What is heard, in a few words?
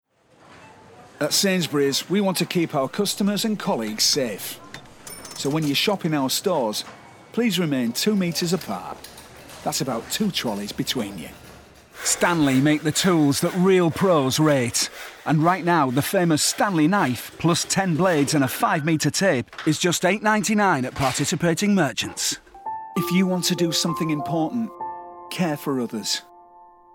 Commercials Montage, 40/50's Northern, Everyman/Familiar/Rich